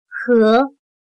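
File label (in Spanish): "河", chino mandarin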